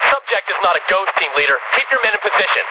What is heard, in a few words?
Dispatch